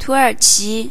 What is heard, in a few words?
"turkey", zh_CN; gcompris; geography